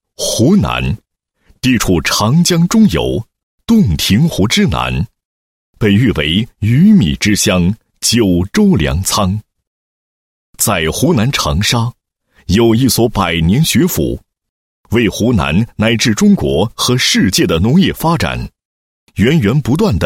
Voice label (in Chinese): 男 教育宣传 宣传配音; 湖南农业大学继续教育学院